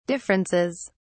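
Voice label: "differences", British English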